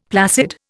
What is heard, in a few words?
"placid", Indian; Dictionary